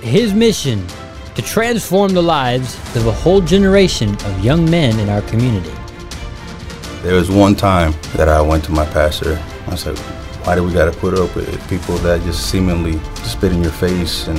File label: Radio Broadcast Commercial; The Witnesses; house of prayer short audio broadcasts